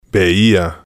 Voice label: "veia", spanish